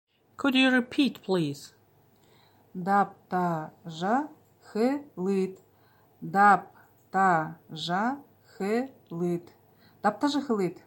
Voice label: Could you repeat please, Buryat